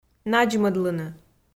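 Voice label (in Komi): "надзмӧдлыны", Komi, female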